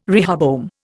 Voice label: "rehoboam", Indian